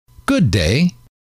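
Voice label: good Day, male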